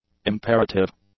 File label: "imperative", English; adjectives